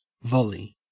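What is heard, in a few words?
"volley", Australian English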